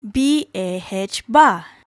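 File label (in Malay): "bah", Malay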